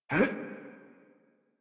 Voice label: Adult Operator Jump, en; Warframe; New War

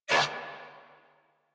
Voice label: Threatened, Female; Warframe; New War; Narmer Grineer

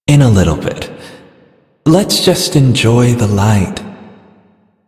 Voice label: Male en, ambient dialog; Warframe; Duviri

